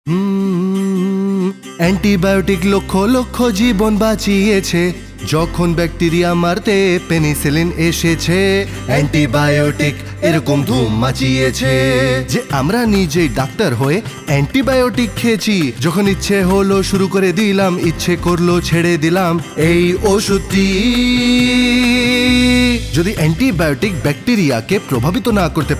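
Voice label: Antibiotic Jingle Bangla; jingles in 19 languages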